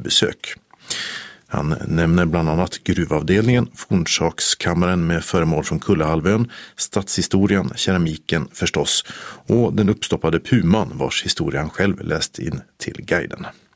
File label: audioguide